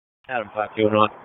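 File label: Police Southeast District Dispatch; Raleigh; p25; wake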